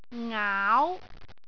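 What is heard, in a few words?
"ngaau2", Cantonese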